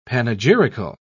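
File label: "panegyrical", British English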